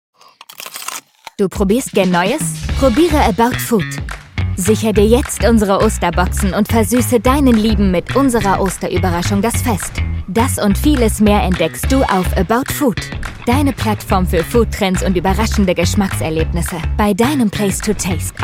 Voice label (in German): TV Spot Ostern; aboutfood; Ostern 2023